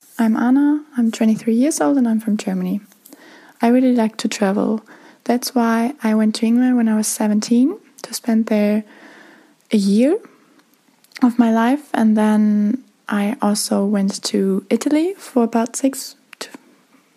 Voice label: German Age 23; Germany

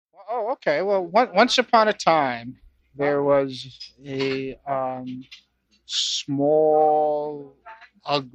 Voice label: US New Jersey Hoboken Male 38yo; US East Coast Dialects

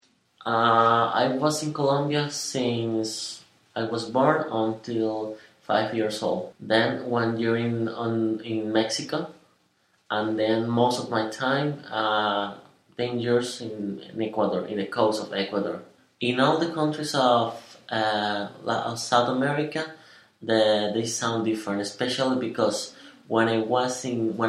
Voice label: Colombia Male; b. 1987 rec. 2010

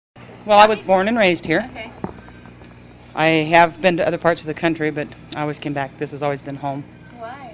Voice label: Wallace Idaho White Female 40s

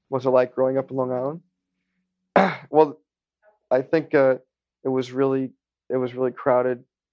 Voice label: US New York Long Island Male 30 s; North America; US Northern East Coast Dialects

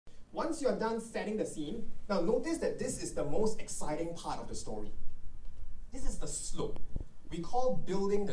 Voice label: Singlish; asia

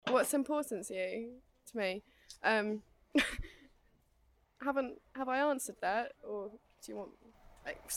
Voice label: UK Plymouth female 20s monologue; England

